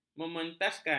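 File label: "mementaskan", Malay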